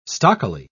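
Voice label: "stockily", English, British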